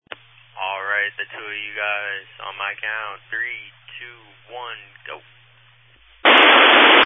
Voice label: Family Radio Service (FRS)